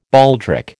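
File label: "baldric", American English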